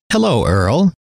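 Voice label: "hello", male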